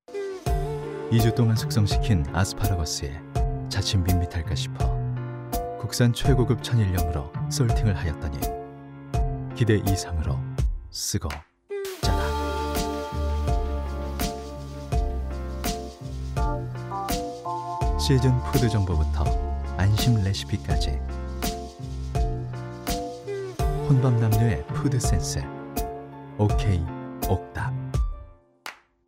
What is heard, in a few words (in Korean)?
지적이고 느낌있는 쇼핑몰 홍보 광고